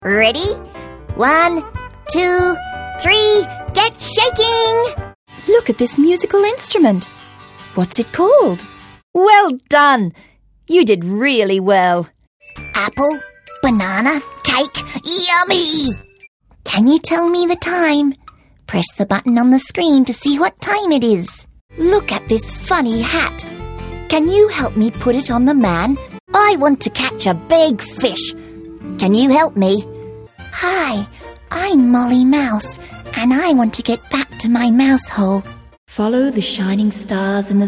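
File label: Australian Toys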